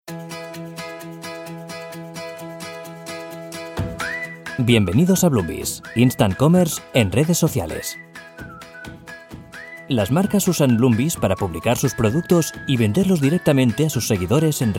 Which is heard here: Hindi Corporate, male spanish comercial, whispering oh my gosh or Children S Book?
male spanish comercial